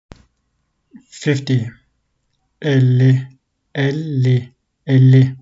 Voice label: "fifty 50", Turkish; the talking turkish phrasebook

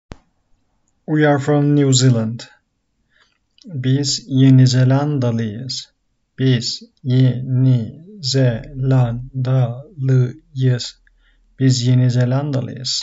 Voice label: we are from, turkish; we are from new zeland; the talking turkish phrasebook

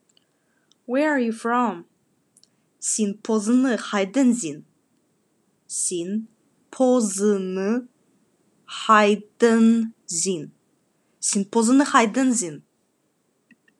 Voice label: Khakas Where are you from

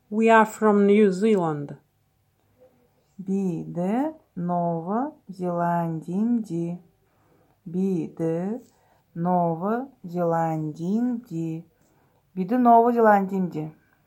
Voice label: We are from New Zealand, buryat

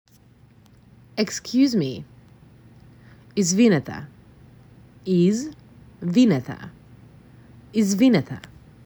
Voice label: Excuse Me, macedonian; the talking macedonian phrasebook

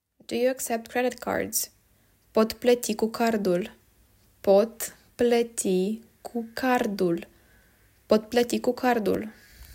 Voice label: Do you accept credit cards, romanian

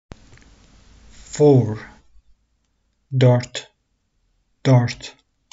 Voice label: four 4, Turkish phrasebook; the talking turkish phrasebook

